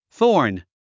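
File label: "thorn", English word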